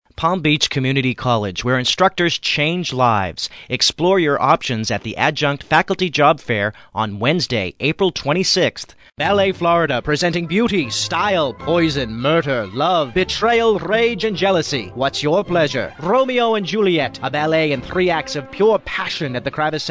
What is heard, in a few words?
VO Demo FM long; PL Voice Over/Spots Demo